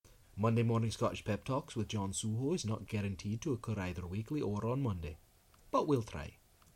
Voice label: Scottish Welcome; Asterisk